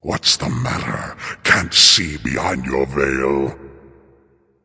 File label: taunt directed nemesis; smite; anubis